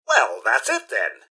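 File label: generic robot combat to normal; mrhandy; npc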